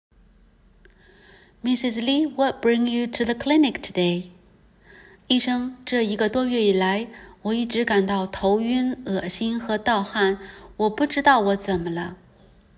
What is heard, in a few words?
Medical Certified Oral Mandarin Practice